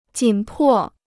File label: "紧迫", Chinese